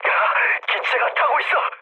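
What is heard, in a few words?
Korean on fire mood low; War Thunder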